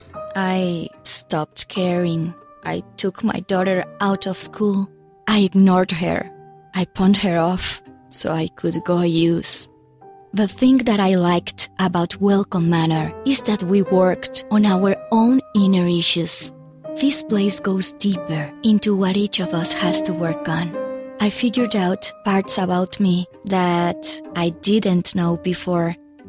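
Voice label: Spanish English narrative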